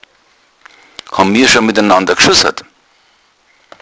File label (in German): schusser, bayerischen Dialekt; mir hom scho middanada gschussert, so lange kennen wir uns schon; Schussern = Schusserspiel